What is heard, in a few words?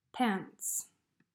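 "Pants", English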